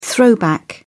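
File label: "throwback", British English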